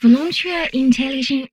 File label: humanoid female; Stellaris